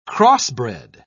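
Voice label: "crossbred", British English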